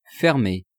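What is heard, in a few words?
"fermer", French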